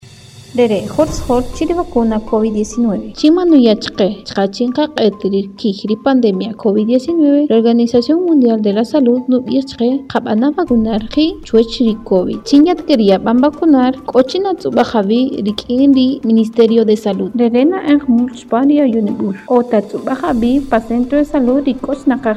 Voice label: SPOT KAQCHIKEL